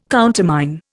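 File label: "countermine", Indian English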